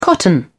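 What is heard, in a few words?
"cotton", British English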